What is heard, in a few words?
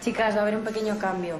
Voice Acting es F